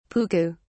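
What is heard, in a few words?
"puku", British English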